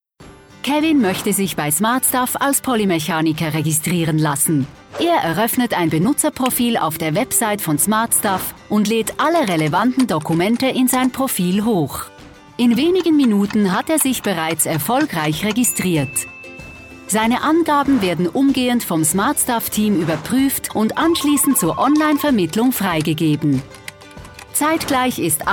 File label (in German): OFF CH DE, kommentar hochdeutsch